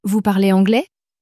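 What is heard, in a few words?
"vous parlez anglais", French, female; flashcards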